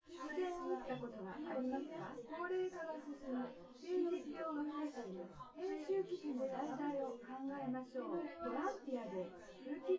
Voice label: female synthconv